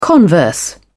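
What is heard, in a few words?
"converse", British English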